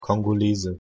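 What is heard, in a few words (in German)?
"kongolese", German; pronunciation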